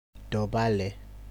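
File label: "dobale", Nigerian Pidgin, male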